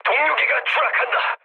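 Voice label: Korean aircraft destroyed mood low; War Thunder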